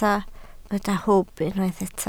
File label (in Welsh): "hwb", Welsh